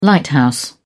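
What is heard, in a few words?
"lighthouse", English, British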